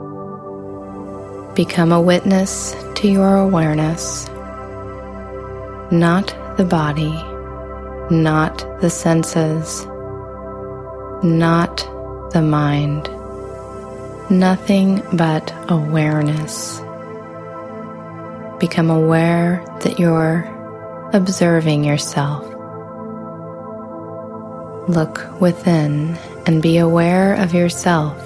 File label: Ultra Deep Hypnotic Trance